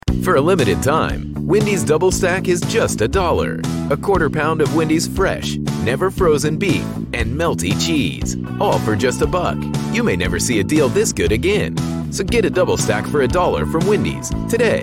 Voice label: 外籍英男 汉堡快餐宣传片

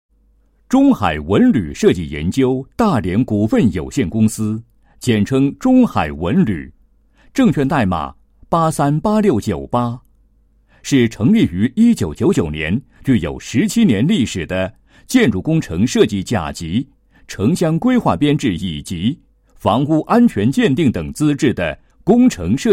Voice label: 男, 企业宣传; 中海文旅设计研究公司

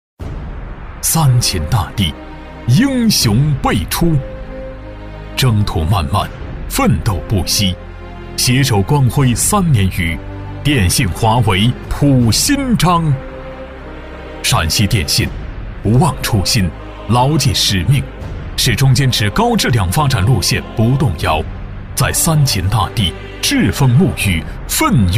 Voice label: 男 专题 大气 工作汇报片

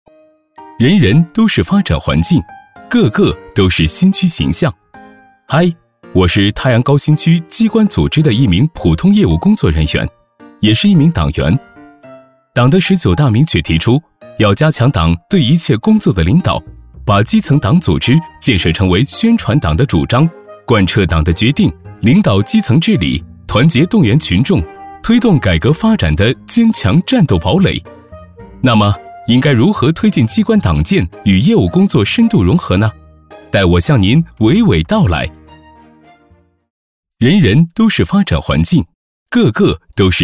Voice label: 男, 党政

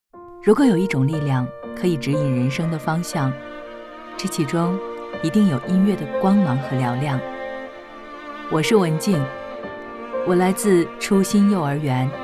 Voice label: 女 旁白 文静

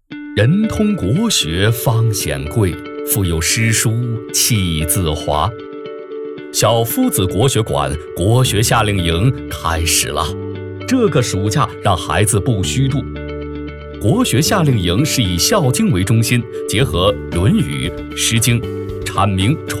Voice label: 男 宣传片 教育培训; 夏令营小夫子国学馆

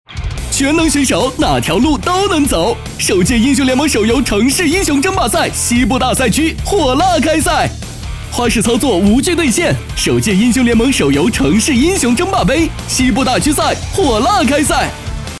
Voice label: 男 中文信息流广告

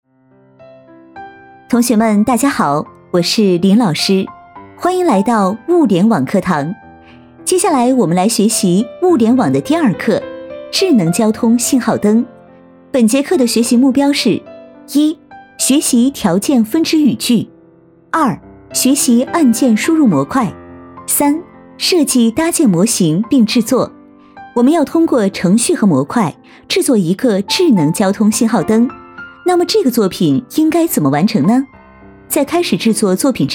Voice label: 课件 轻松自然

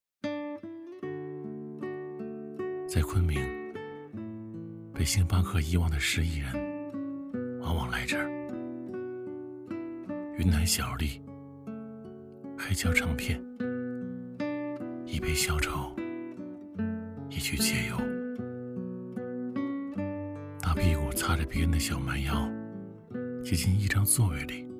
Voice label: 男 广告; 星巴克

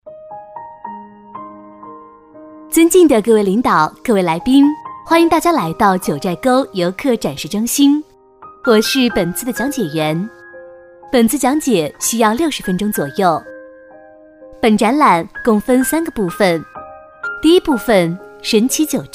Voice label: 女 讲解员 九寨沟介绍

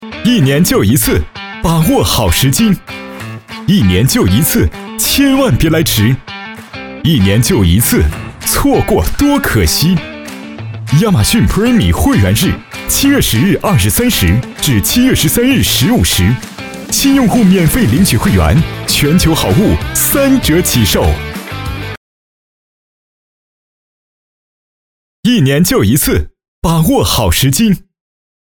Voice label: 男 青春活泼广告

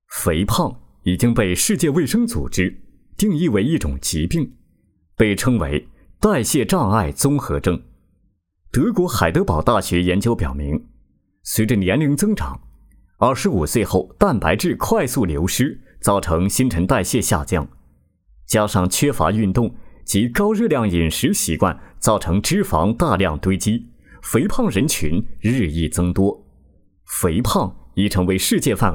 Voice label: 男, 美容专题; 减肥专题

